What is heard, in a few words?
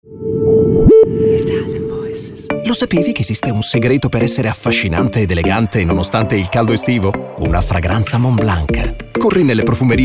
ITALIAN VOICES Friendly commercials, male voices